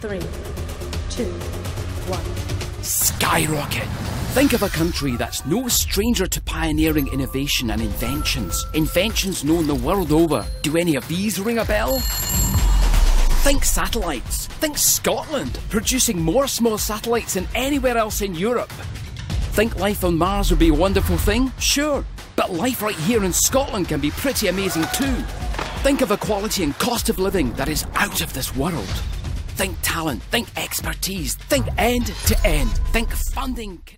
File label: english scottish commercial